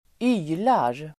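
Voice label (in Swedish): "ylar", Swedish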